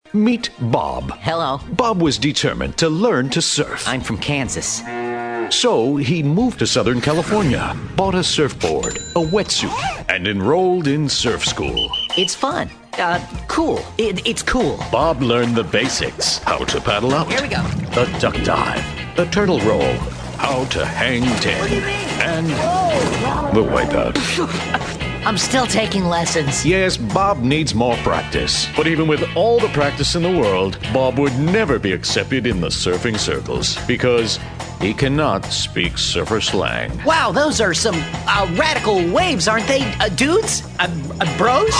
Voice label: ultimate image printing, messages on hold